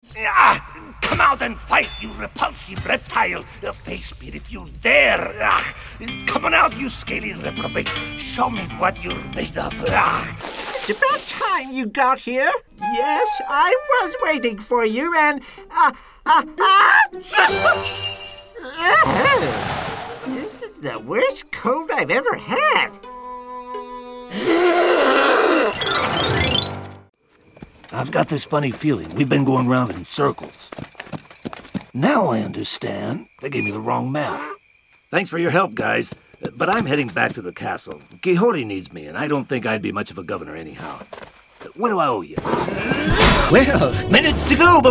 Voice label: Animation